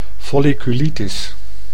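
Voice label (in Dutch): "folliculitis", Dutch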